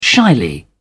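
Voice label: "shyly", British English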